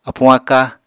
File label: "apuñ-aka", Apatani